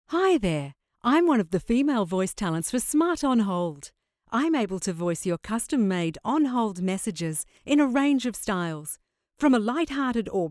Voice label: FEMALE